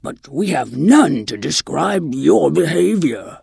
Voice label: Tuskarr Female Pissed; Magic User